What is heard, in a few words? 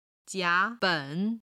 "jia ben", chinese